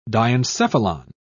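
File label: "diencephalon", British English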